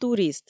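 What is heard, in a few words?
"турист", Komi, female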